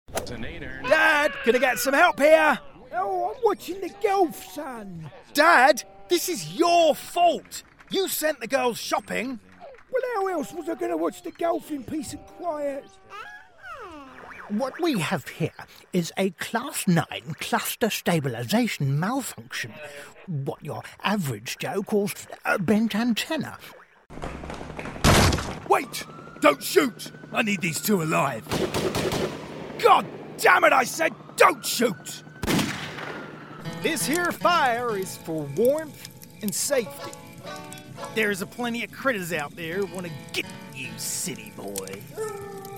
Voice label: English British animation; une voix authentiquement britannique originaire de Londres, au Royaume-Uni